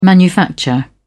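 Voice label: "manufacture", English, British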